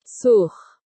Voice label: search dog command in german